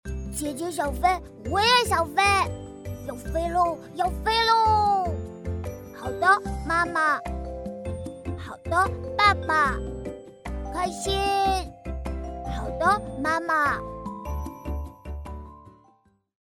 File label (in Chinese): 女, 模仿, 弟弟; 《小猪佩奇》-佩奇弟弟